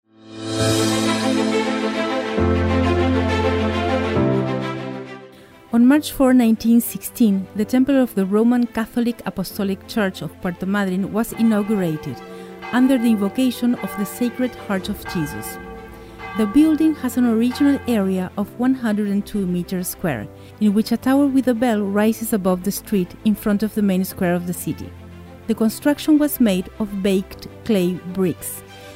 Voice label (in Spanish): INGLES PARROQUIA; parroquia sagrado corazon de jesus